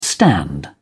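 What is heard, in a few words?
"stand in", British English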